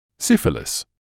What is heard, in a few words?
"syphilis", English